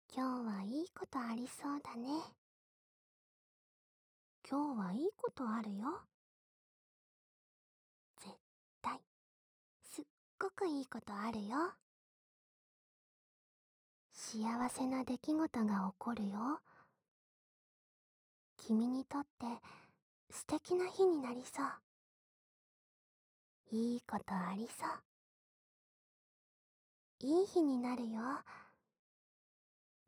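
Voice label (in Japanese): 右耳 今日はいいことある, 囁き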